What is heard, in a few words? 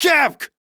Surprised Male, Battle Barks; en; Modular Corpus